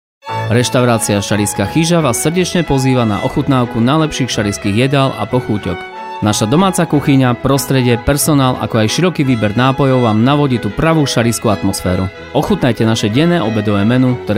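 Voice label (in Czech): reklami spot; restauraciauklucika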